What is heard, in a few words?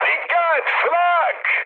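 damaged aa, mood med, Turkish; speech; War Thunder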